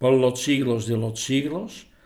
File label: por los siglos de los siglos, es